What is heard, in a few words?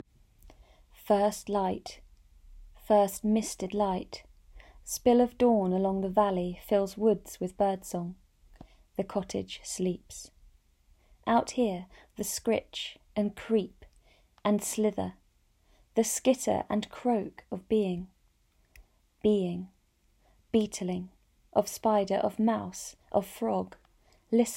poem; schoollife